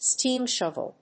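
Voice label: "steam shovel", English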